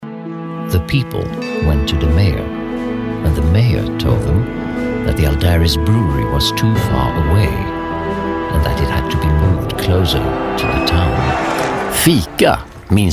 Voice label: english; Voicereel